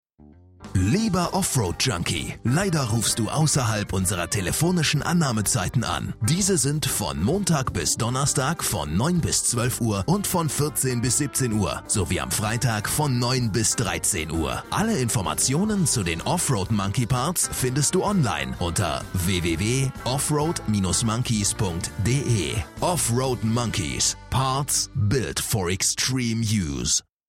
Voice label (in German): Telefonansage; Monkeyparts